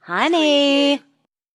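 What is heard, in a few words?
Woman Says Honey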